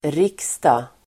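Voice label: "riksdag", Swedish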